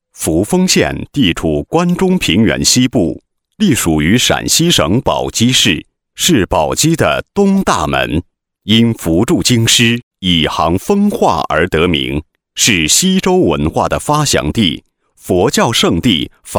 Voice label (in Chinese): 男99-专题-生态扶风